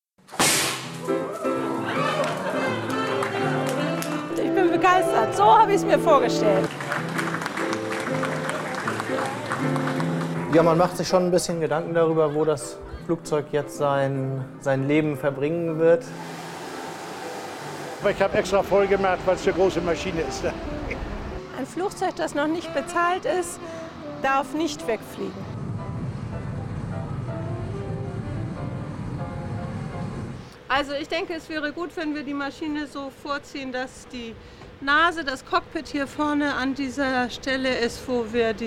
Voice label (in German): Dokumentation